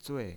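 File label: "zui", Mandarin Chinese, tone 4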